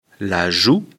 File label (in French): "joue", lecture fr